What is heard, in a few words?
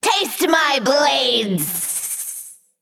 Female Naga Attack